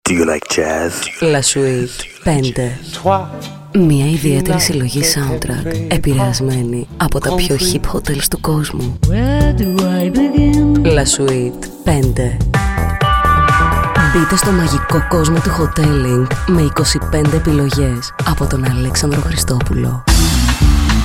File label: RADIO SPOT; La SUITE 5